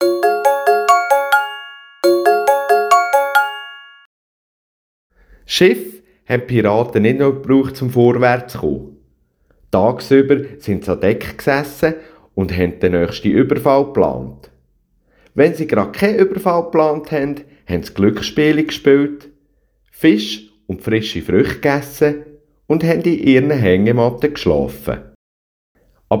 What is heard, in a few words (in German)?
Posten Segelschiff mit Jingle